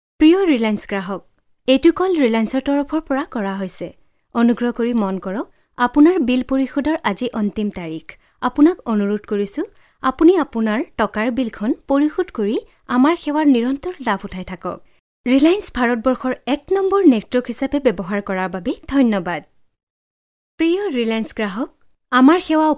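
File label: Assamese, General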